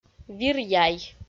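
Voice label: "вир-яй", Komi, female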